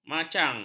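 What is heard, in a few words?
"macang", Malay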